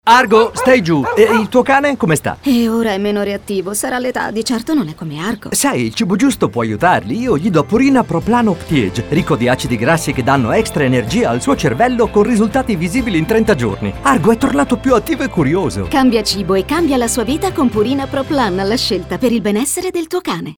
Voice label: Radio; Purina; Optiage

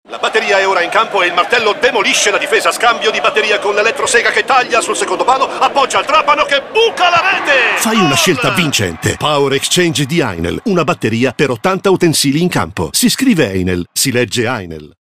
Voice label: lo spot radiofonico; einhell

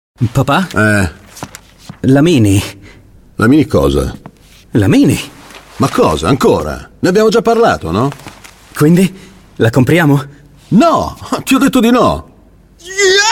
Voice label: dialogo figlio vivace

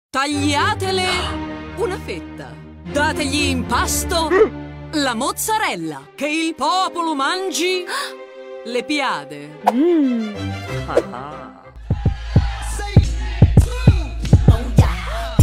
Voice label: intensa

